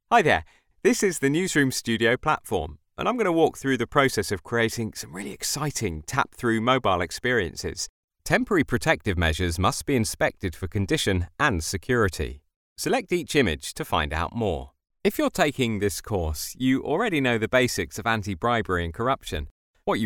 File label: E-LEARNING REEL